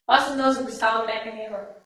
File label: Voice Acting es F